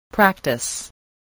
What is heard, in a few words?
"practice", voice, spelling